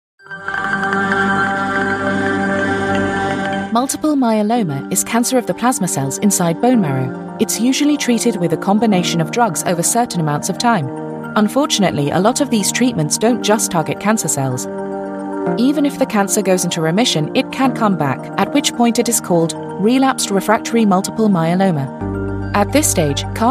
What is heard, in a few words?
medical explainer, voiceover; webmd